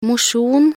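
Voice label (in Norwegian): "mosjon", Norwegian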